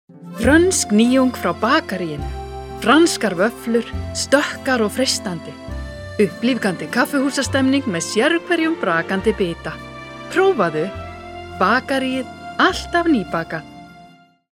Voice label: Icelandic F Commercial

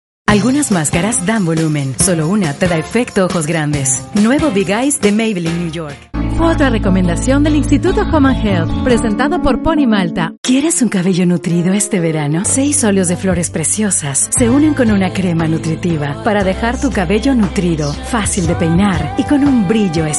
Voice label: Spanish LA F Commercial

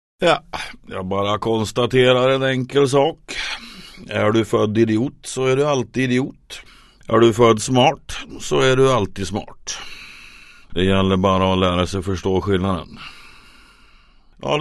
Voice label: Swedish M Character

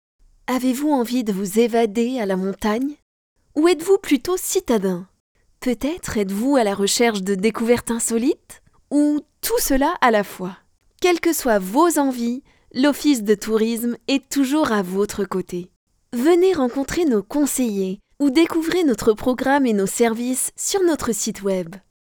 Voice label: FR, Commercial, Tourism

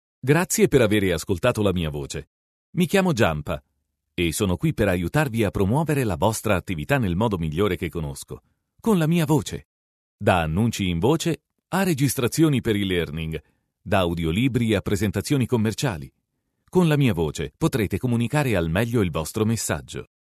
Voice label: Italian M Narration

